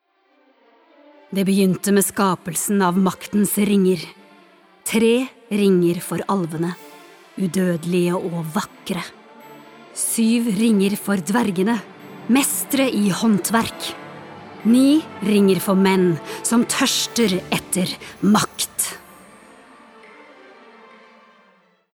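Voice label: Norwegian movie trailer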